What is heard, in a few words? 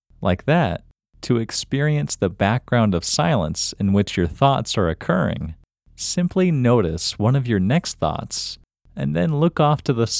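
Male, India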